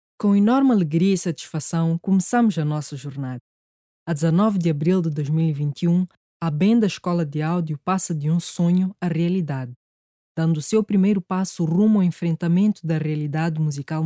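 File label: Portuguese Mozambique female narrative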